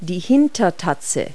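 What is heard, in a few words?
"hind paw", English words; Multi-Lingual Talking Picture Dictionary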